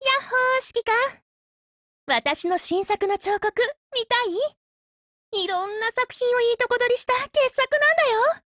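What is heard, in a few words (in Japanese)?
"hello", Japanese; MP443Mod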